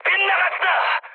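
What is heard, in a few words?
Korean bomb failure mood high, speech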